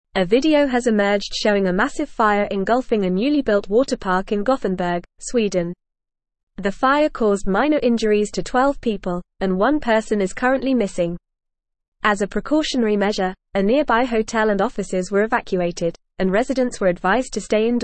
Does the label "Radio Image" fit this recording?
No